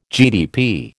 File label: "GDP", American